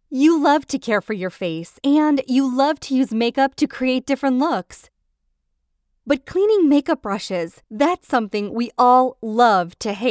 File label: english us and canada female commercial